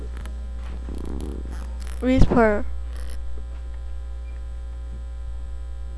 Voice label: whisper

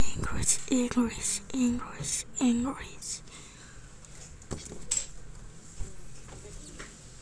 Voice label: English

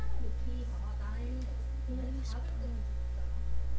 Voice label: whisper

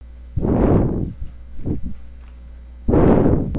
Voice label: announcement

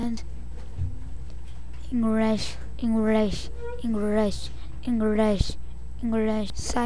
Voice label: English

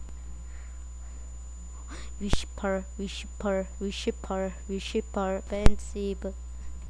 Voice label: whisper

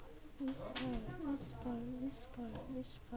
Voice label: whisper

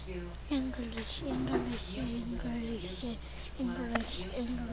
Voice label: English

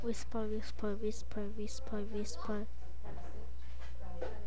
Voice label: whisper

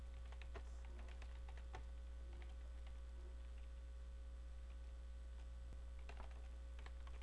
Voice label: commercial

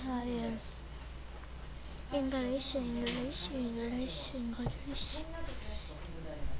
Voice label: English

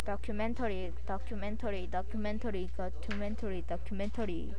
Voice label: documentary

